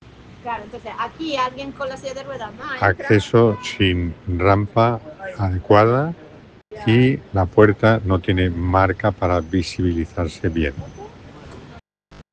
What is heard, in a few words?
Voice message